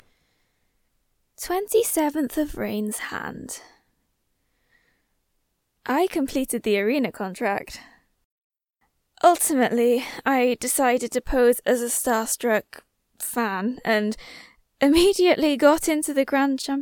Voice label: bosmer assassin line, wood elf voice auditions

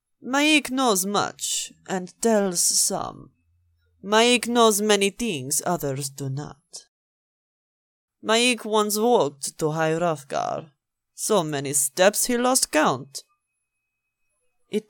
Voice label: female kajit voice acting

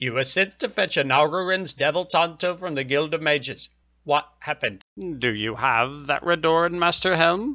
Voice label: wood elf voice auditions; anarerens devil tanto

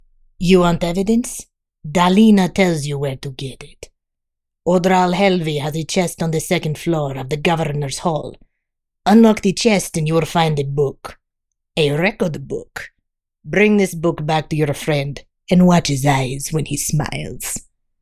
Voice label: not sultry khajiit

